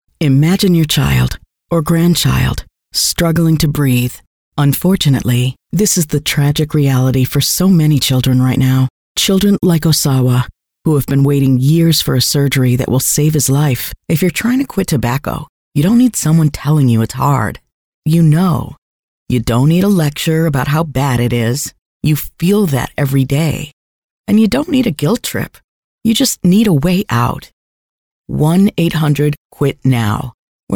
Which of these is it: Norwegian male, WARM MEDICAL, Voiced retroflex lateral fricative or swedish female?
WARM MEDICAL